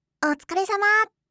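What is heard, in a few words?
"otsukaresama", Japanese; aisatsu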